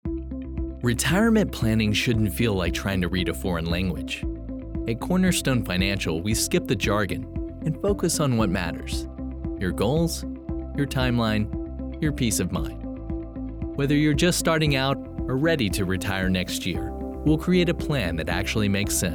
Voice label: Financial VO Ad - with Music; Cornerstone